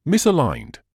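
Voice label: "misaligned", British English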